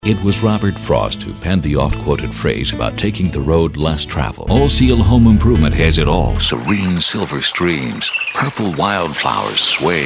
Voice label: commercial